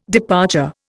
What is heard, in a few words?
"departure", Indian